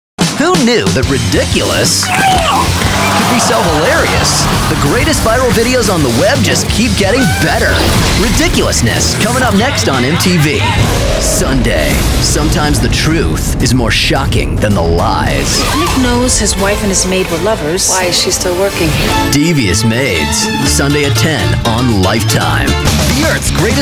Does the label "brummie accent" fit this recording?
No